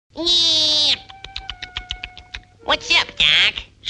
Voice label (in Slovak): sms hlásky en